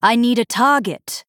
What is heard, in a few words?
Female Night Elf ERROR TARGET